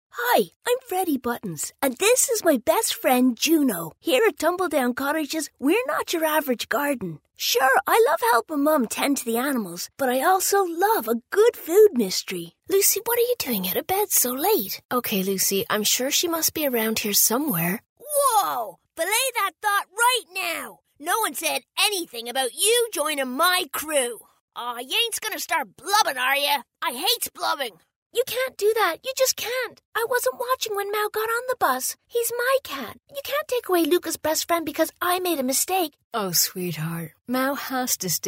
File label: mid atlantic female animation and gaming